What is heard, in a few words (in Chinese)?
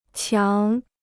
"强", Chinese